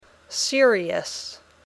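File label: "serious", English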